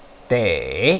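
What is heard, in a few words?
"dei3", Mandarin Chinese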